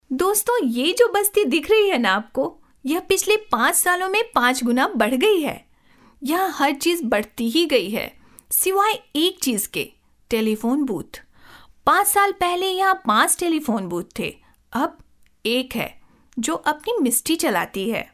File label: Hindi Vo, female voice